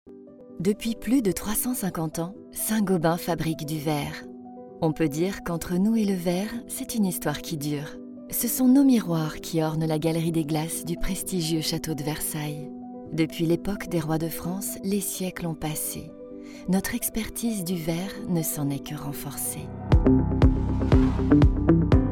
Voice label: Corporate, Voix Off